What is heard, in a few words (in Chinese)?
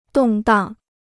"动荡", Chinese